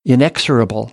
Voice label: "inexorable", American; English